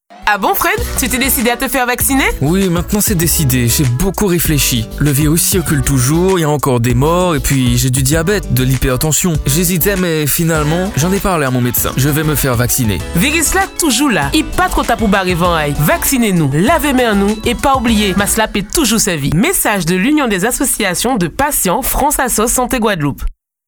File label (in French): spot radio promotion vaccination